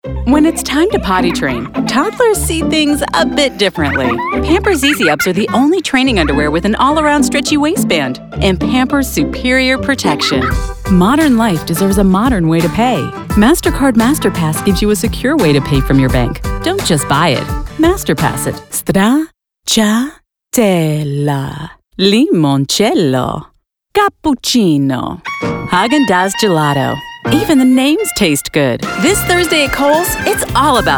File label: Commercial